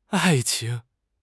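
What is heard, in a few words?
"ai4 qing2", Mandarin Chinese; HSK4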